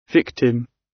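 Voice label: "victim", British; Crime and punishment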